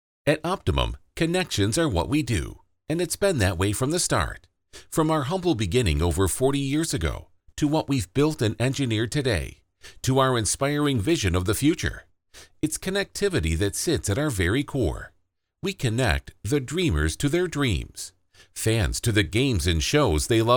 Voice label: conversational corporate vo

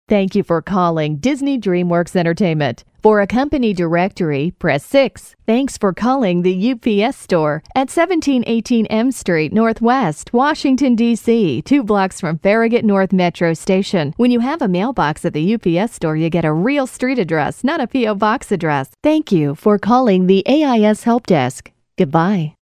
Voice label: Phone Prompts Female

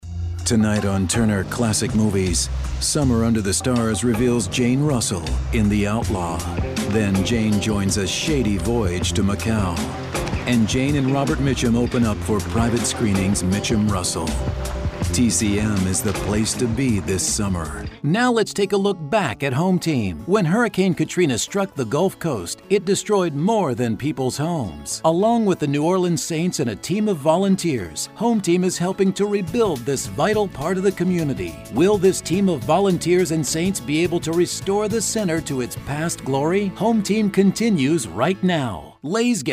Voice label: Promo Male

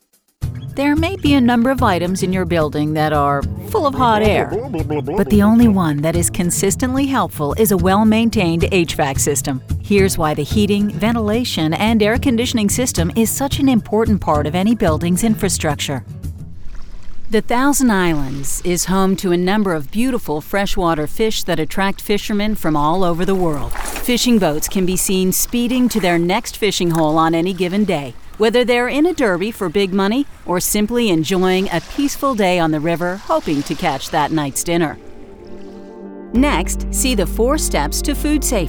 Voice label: elearning, commercial voiceover

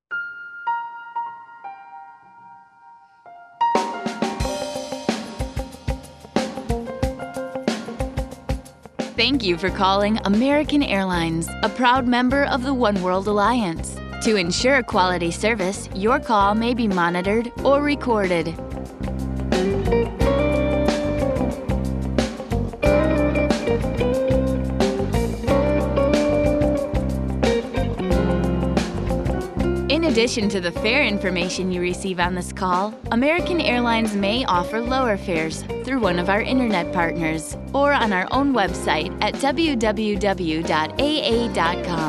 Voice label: Phone-Hold Female; Narration, Phone Hold/IVR

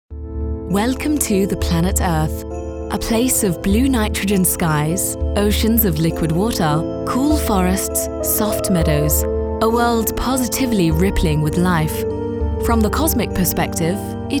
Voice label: Narration British Female

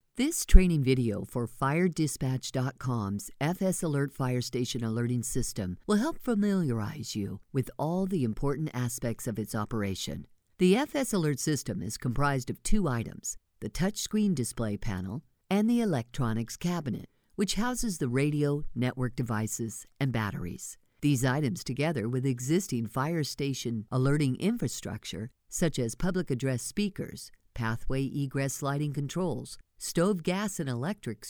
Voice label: eLearning Female; commercial voiceover; Narration, eLearning

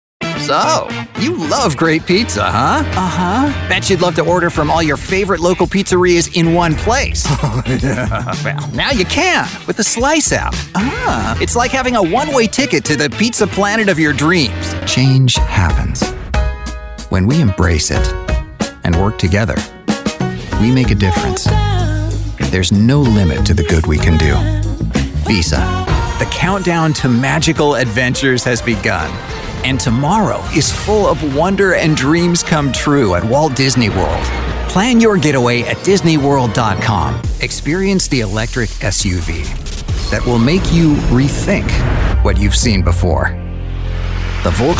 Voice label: Commercial Male

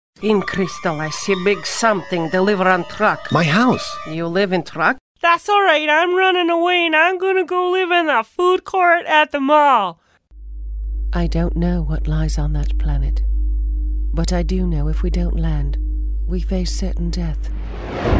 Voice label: Characters Female, commercial voiceover

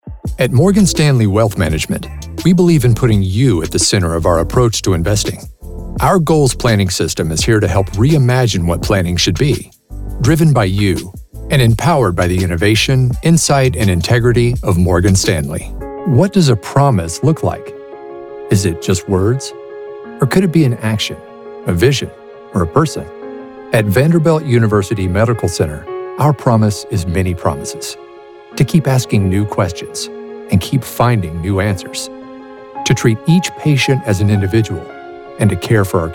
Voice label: Explainer Male

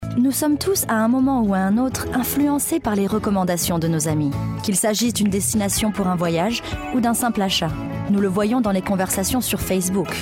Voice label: Foreign Voices French Female Commercial; commercial voiceover

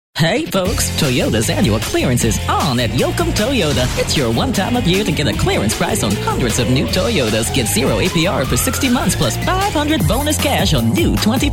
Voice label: automotive, Commercial Voiceover, Auto Dealer

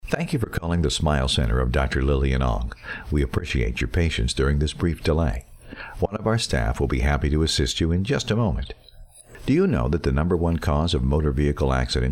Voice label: Phone Hold IVR Male; commercial voiceover

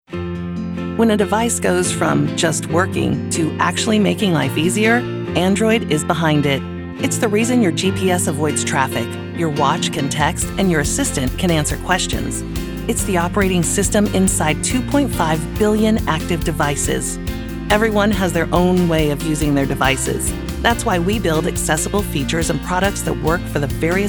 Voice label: corporate, commercial voiceover; Narration, Corporate

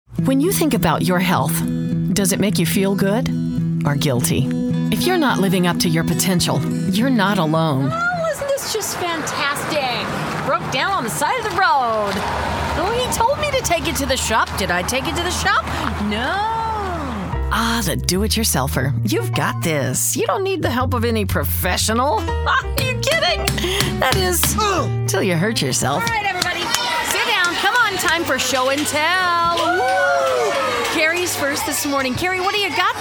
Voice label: Commercial Female; commercial voiceover

